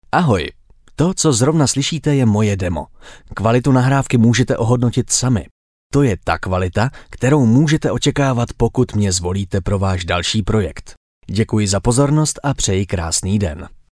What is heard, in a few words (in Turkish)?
Cekce Erkek, seslendirme